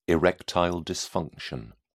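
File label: "erectile dysfunction", British English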